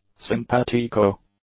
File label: "simpatico", English; adjectives